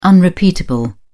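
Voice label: "unrepeatable", British English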